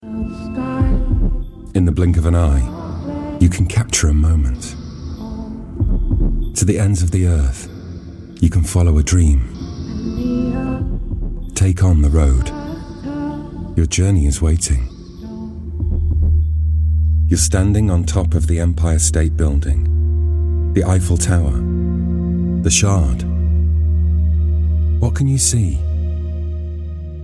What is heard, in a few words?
inspiring